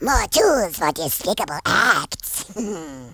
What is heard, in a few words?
collect, Horror